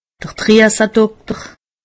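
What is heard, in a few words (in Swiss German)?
"driesedokter", Alsatian; medecine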